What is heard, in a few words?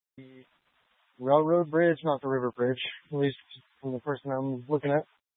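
Police Dispatch, scanner audio recording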